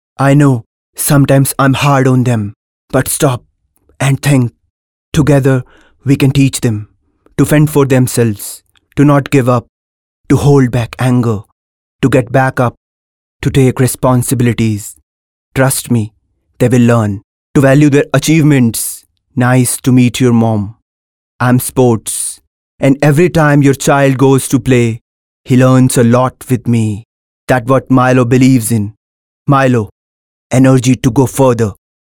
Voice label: English, male voice